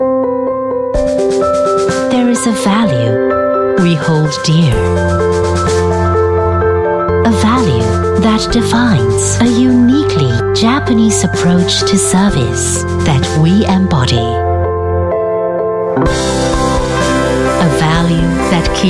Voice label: Asian English Commercial Airlines Branding; Japan Airlines